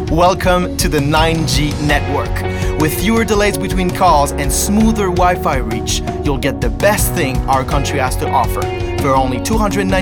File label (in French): pub Mobile